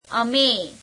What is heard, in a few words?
gujarati we; અમે [ame]